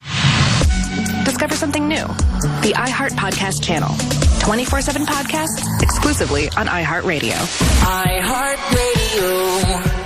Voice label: podcasting station ID; iHeart Radio; Allenstown PA